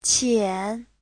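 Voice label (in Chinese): "qian3" Chinese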